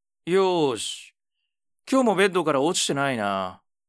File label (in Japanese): mezamashi, ASMR